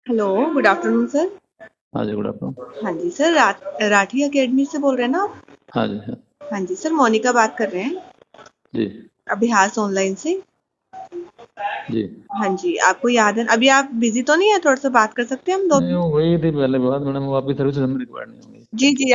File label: call recording